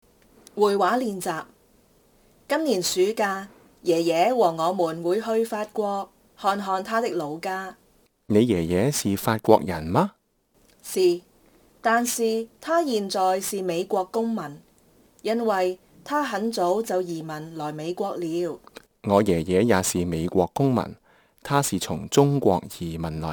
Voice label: conversation, Kantonese